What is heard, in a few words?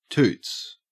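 "toots", Australian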